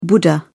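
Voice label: "buddha", English, British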